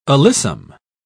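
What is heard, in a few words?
"alyssum", British English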